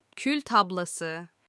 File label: ashtray in turkish